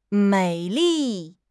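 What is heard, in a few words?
"mei3 li4", Chinese; HSK4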